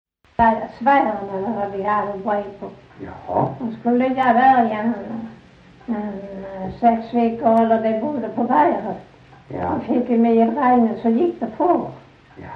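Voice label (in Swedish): Sövde Skåne, dialekter svenska sagor; Folkminnen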